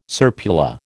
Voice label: "serpula", American